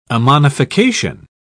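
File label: "ammonification", English, British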